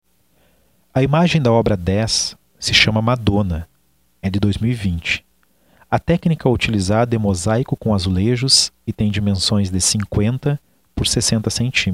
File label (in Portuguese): audiodescrição; galeria virtual